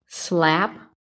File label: "slap", phonetic